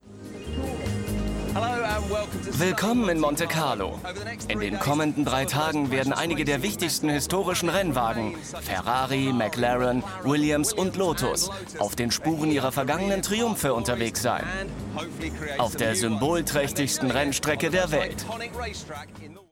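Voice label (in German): VoiceOver, Sprecher, Männer; Faszination Monaco Grand Prix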